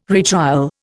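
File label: "retrial", Indian English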